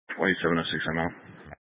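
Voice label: Sheriff Dispatch, scanner audio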